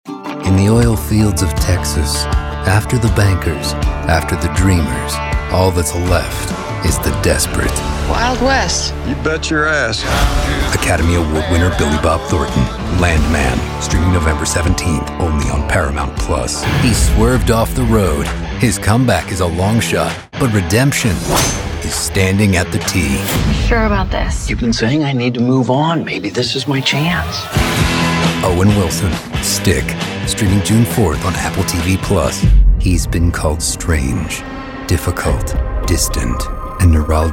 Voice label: Promos; Landman; Stick; Brilliant Minds; Shark Tank; DMV; Lego Masters